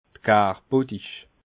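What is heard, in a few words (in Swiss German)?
"garbottich", Alsatian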